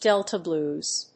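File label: "delta blues", English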